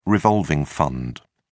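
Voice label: "revolving fund", British English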